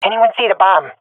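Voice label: swat female; Counter Strike; CS:GO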